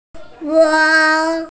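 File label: "weng1", Mandarin Chinese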